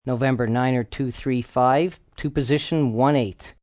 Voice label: Tower To Position, Air traffic controller, male; Pa Announcement; VoiceBox

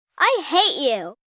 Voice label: I Hate You, Attitude, 1 girl, 7 yrs

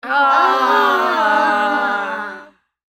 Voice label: Kids Complain, Whine; Human; Talking (Sound Effects:Voice)

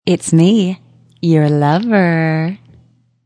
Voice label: Woman Says Its Me Your Lova, Woman talking; Talking Sound Effects; Talking (Sound Effects:Voice)

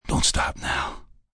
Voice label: Dont Stop Now, Sexy, fun, male, deep voice, sexy; VoiceBox

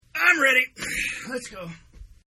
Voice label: Man Says Im Ready, Exclamations; Voice; Partners In Rhyme

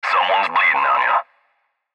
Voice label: Cb Trucker Radio; Radio Voice Sound Effects; Blastwave

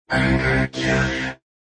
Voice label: "Im Gonna Kill Ya", Male; Talking

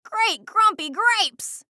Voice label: Cartoon Voice Female; Cartoon Voices

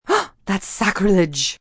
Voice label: Sacrilige, female, shocked; Talking; VoiceBox

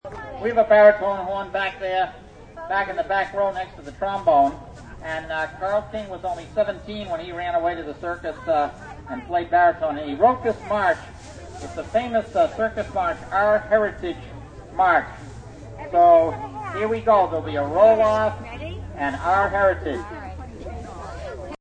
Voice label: Brass band leader announcement; Talking

